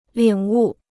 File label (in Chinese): "领悟", Chinese